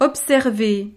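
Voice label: "observer", French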